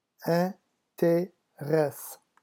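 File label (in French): "interesse", French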